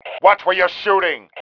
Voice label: watch it, male

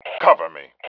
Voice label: COVER ME, MALE

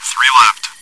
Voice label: chitchat, bot, voice commands; svencoop

